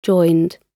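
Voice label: "joined", British English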